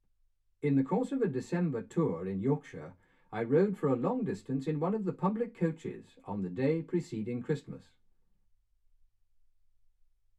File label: male speech english